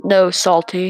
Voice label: salty nooooo

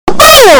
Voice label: distorted poyo; kirb

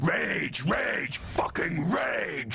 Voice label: rage rage

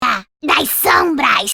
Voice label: kennen voice portugues brasileir

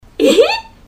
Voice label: japanese girl ehe like really

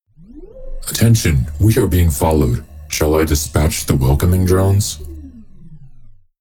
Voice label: the welcoming drones male normal personality; dispatch

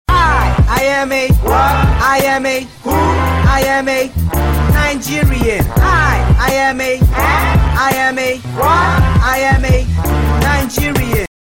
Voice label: Nigerian Kids

